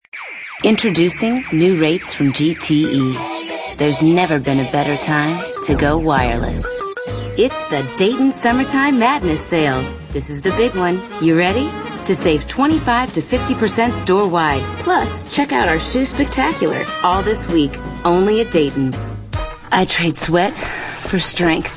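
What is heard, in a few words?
female, late 20s mid 30s, contemporary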